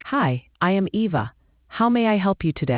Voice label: conversation